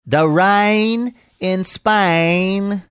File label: funny manner; the rain in spain